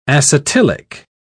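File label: "acetylic", British English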